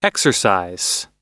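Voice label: "exercise", American English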